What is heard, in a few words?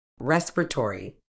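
"respiratory", medical English, British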